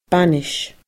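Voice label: "banais", Scottish Gaelic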